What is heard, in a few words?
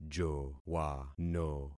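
"jowano", concatenated syllables; Affixing experiment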